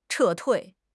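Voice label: "撤退", Mandarin Chinese; HSK; HSK6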